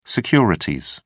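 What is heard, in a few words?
"securities", British English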